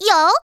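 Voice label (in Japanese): "よぉ", Japanese; Voice&Breath; Vocaloid 6.5.1; Otomachi; VOCALOID6 AI OtomachiUna Spicy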